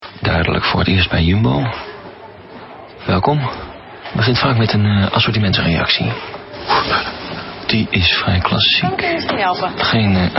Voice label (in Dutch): neutraal, stem voice-over